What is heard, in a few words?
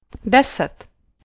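"deset", Czech, female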